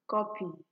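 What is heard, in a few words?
"copy", Nigerian Pidgin, female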